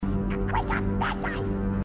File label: Wake up bad guys, grunts